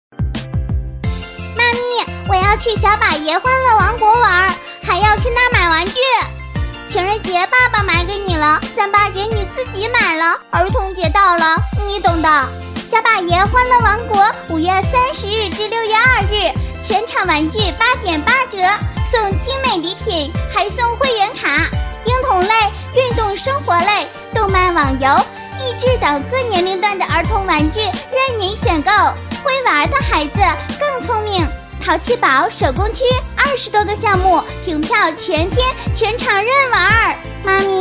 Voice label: 女 广告促销 活泼童趣; 国语女声配音; 欢乐王国

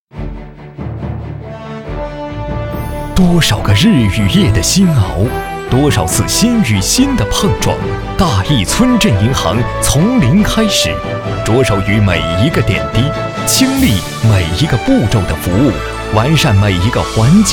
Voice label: 男 专题 激昂大气 村镇银行; 大邑村镇银行

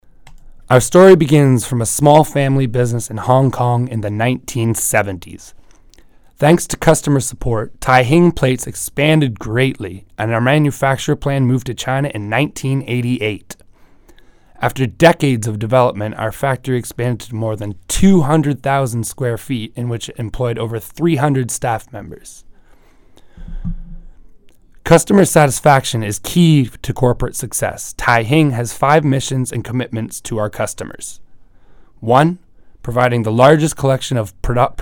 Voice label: 外籍 配音稿; 泰兴

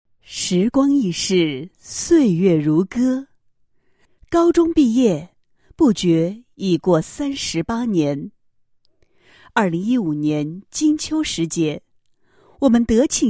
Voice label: 女 聚会 同学会, 配音案例; 香山中学同学会

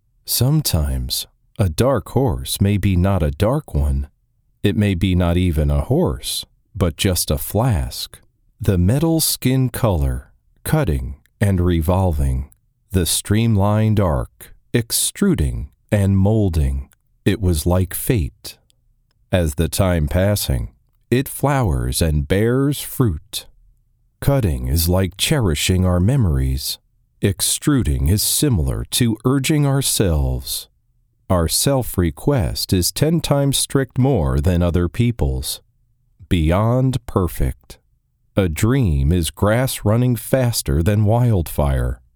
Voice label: 外籍英男 柔和 舒缓; 哈林杯

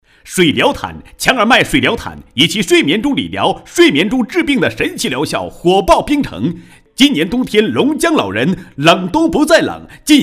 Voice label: 男 直销, 高音; 国语男声配音

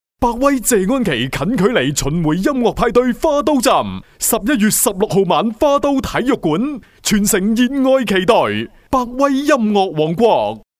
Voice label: 粤男; 百威

